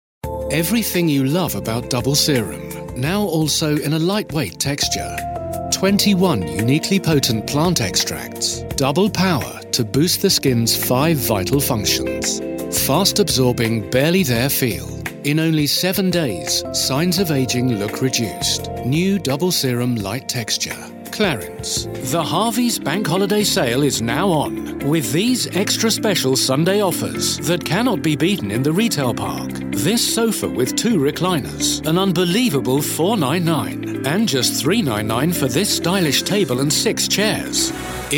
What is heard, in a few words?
tv ads